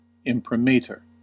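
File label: "imprimatur", US English; pronunciations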